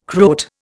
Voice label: "Croat", Indian